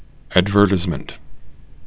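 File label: "advertisement", Canadian English, male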